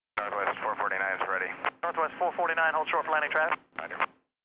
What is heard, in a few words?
chatter; rigs of rods